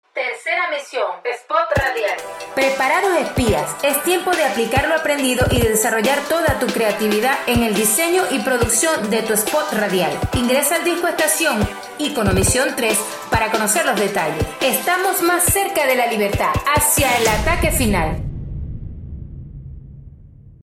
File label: spot, locutora; locutora fantasma